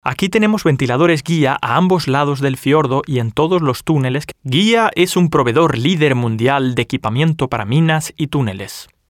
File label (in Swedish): spansk man